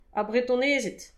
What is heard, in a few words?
"ar bretonezed", Breton; petit precis de prononciation